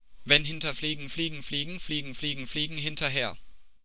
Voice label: German tongue-twister Fliegen